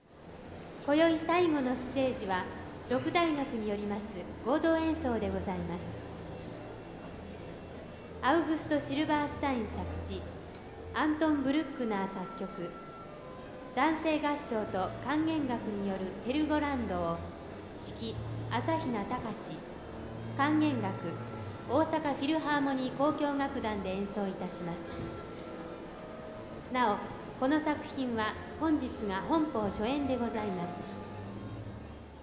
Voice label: Announcement